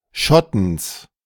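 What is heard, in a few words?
"Schottens", German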